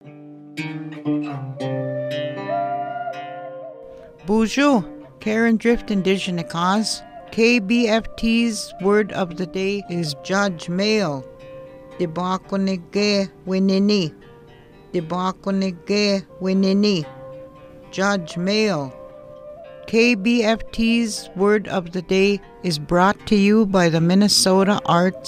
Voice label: judge male